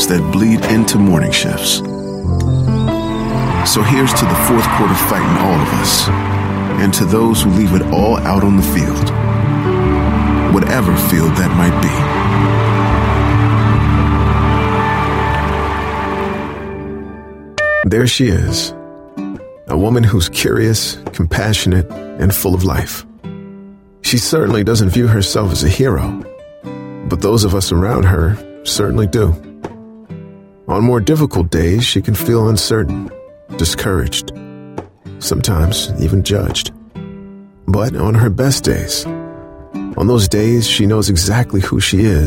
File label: english american voice over male